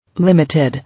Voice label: "Limited", English

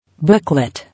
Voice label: "Booklet", English

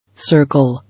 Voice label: "Circle", English

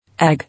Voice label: "Egg", English

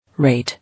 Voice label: "Rate", English

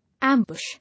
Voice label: "Ambush", English

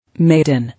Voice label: "Maiden", English

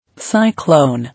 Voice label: "Cyclone", English

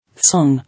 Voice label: "Song", English

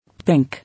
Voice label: "Pink", English

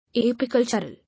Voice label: "Apicultural", English

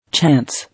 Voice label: "Chance", English

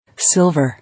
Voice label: "Silver", English

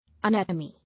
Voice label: "Anatomy", English

